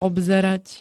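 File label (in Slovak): "obzerať", Slovak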